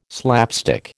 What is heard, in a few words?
"slapstick", American